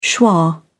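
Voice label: "schwa", British English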